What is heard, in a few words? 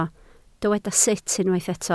"sut", Welsh